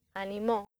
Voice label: "animo", accent; laboratori fonetica; practiques accent

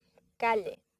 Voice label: "calle", accent; laboratori fonetica; practiques accent

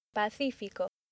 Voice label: "pacifico", accent; laboratori fonetica; practiques accent

